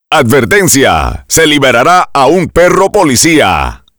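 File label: K9 Release Spanish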